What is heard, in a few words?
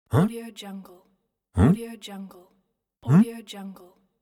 man huh